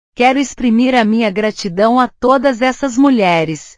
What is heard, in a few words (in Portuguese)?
"gratidão", portugues